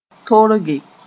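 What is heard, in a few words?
"torge", Mooré; to break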